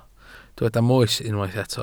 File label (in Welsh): "moes" Welsh